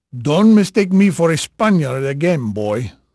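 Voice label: Portuguese male, voice; Pirates Of The Caribbean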